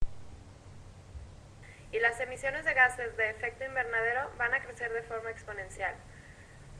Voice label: narración en español del mix energético

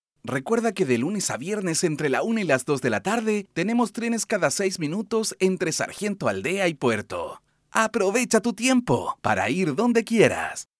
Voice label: locucion para vagones metro de Valparaiso

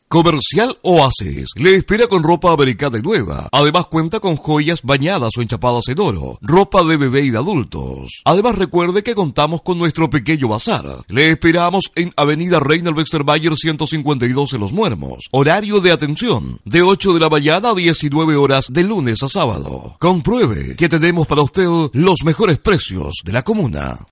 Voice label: comercial

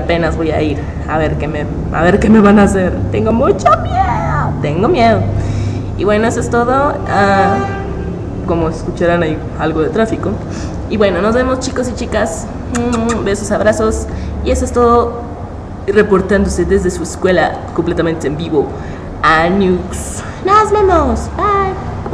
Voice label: para que escuchen mi sensual voz

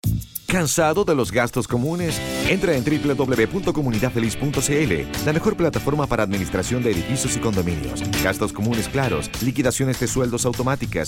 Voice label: spot voz; comunidadfeliz cl

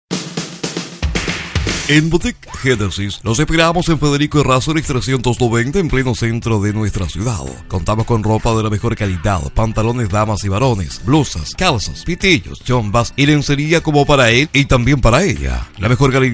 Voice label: locutor comercial

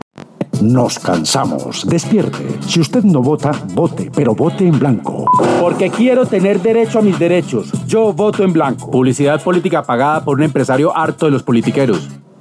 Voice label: comercial; voto en blanco

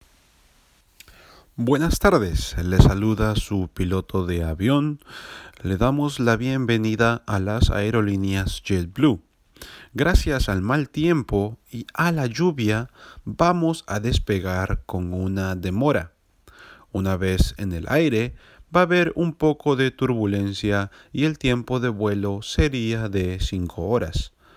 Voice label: anuncio de avion

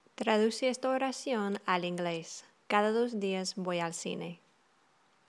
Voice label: cada en ingles

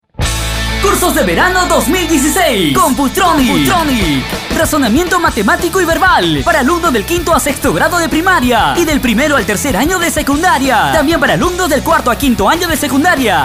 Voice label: spot computronic voz

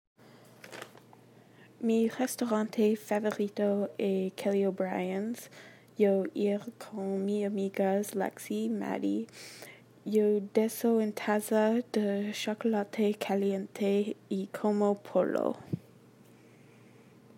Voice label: restaurante favorito

